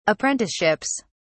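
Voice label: "apprenticeships", British English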